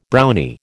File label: "Brownie", American